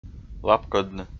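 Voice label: "лапкӧдны", Komi, male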